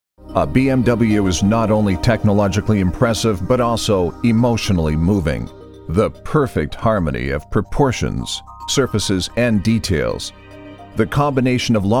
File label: english canadian automotive